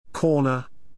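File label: "corner", English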